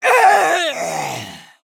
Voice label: Female Worgen Death

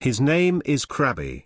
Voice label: Male Dwarf; Tavish; Scabbs

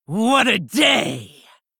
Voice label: Female Fel Orc

